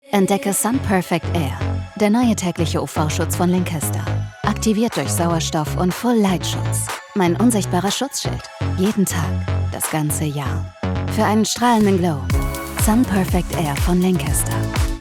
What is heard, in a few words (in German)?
werbung; lancaster